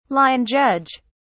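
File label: "line judge", British English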